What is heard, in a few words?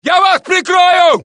Russian; mohaa